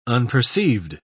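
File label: "unperceived", British English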